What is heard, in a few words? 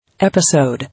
"Episode", English